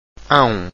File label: ao nasal, Portuguese vowels